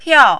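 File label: "piao", Mandarin, fourth tone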